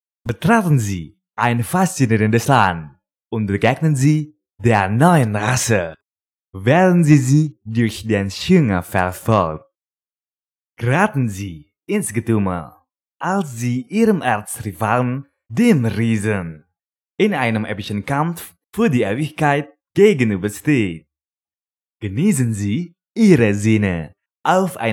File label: GERMAN CASUAL